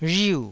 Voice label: "rhiu4", taiwanese

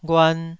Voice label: "ghuan2", Taiwanese syllable

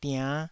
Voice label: "diann5", taiwanese syllable

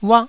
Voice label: "uah4", taiwanese

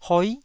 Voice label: "hoi9", Taiwanese syllable

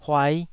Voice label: "huai5", taiwanese

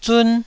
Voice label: "zun1", Taiwanese

